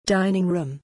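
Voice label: "dining room", English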